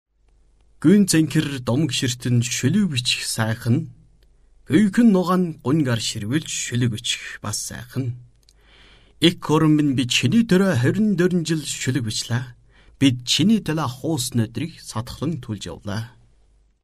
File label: old mongolian